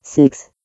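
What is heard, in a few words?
"six", English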